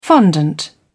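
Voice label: "fondant", British English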